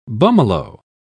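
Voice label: "bummalo", British English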